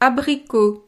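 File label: "abricot", French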